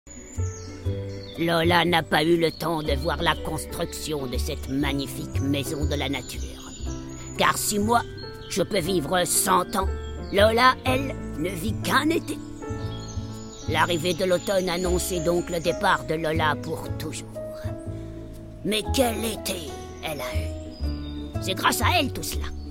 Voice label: Livre audio; Cistude la vieille tortue

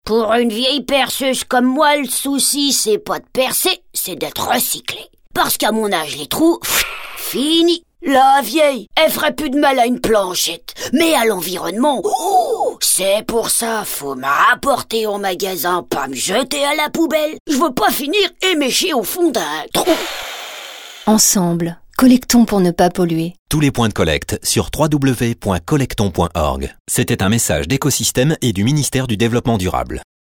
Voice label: PUB Femme gouailleuse; Ecosystem

